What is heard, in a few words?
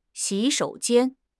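"xi3 shou3 jian1", Chinese; HSK; HSK3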